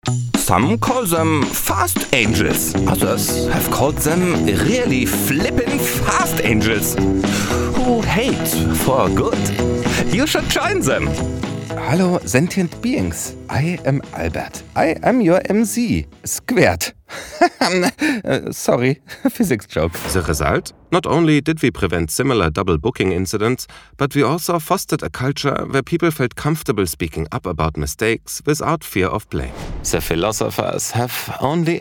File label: english german accent